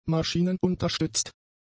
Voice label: "maschinenunterstützt", German; adjectives